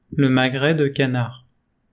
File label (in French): Le magret de canard, French